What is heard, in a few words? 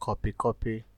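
"copycopy", Nigerian Pidgin, male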